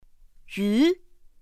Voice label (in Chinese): "yú", pinyin; pinyin2; pinyinji